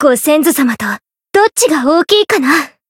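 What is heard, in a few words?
encount fear, Japanese; flammelapis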